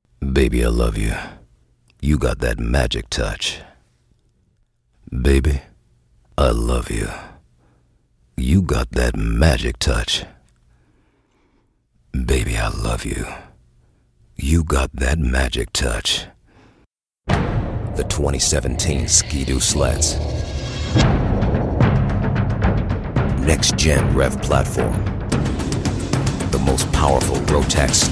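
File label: american male deep voice, Strong Urban American Male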